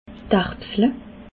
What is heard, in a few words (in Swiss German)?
"erbsle", Alsace